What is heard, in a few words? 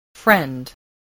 "friend", English voice, spelling